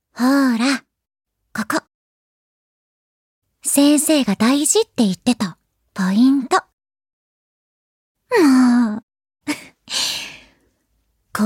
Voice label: female